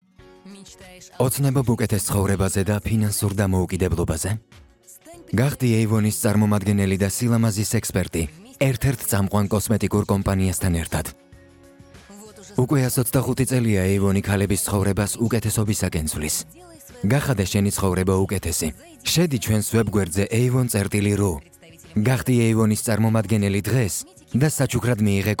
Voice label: Georgian